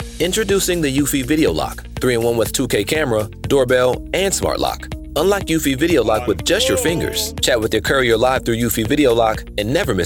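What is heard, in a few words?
with BGM; EUFY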